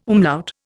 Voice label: "umlaut", Indian

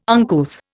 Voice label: "uncouth", Indian

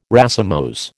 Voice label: "racemose", American

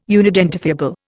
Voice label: "unidentifiable", Indian

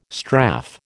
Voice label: "strafe", American

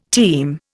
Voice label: "team", Indian

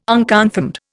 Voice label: "unconfirmed", Indian

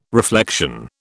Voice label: "reflection", British

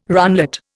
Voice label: "runlet", Indian

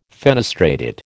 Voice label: "fenestrated", American

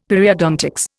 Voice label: "Periodontics", Indian; Dictionary

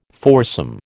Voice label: "foursome", American

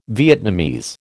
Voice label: "Vietnamese", American

